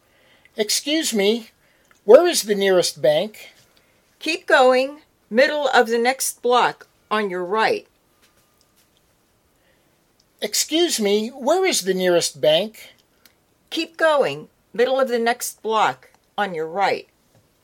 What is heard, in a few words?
Natural Dialog; Lesson 1A